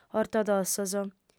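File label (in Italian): "ortodossos", lingua sarda, fem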